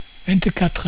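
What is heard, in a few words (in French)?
"vingt quatre", French; cat numeration doigts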